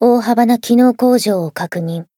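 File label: Voice burst, Japanese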